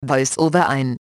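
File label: German VoiceOver On; EFI Audio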